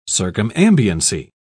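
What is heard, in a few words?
"circumambiency", British English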